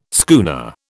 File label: "schooner", British